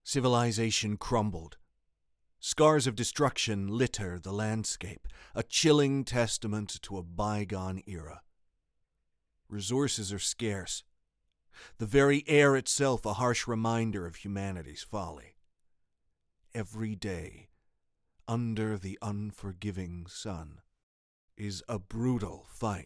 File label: US West Coast